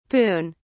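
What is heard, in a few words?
"puisne", British English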